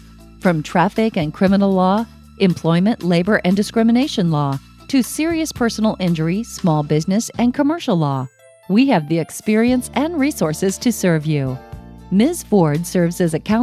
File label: law office, female voices; audio on hold